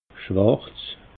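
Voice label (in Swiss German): "schwarz", Alsatian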